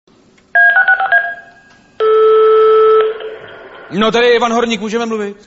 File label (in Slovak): sms hlásky cz